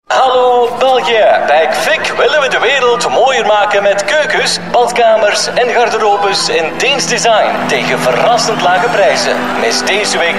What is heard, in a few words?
Flemish Radio Commercial, Male